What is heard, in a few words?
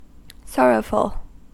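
"sorrowful", US English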